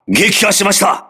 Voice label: voice message enemy destroyed, crew ships japanese